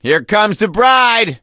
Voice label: wedding witch chasing, survivor voice gambler; Left 4 Dead 2